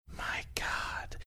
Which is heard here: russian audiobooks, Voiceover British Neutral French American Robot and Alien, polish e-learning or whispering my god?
whispering my god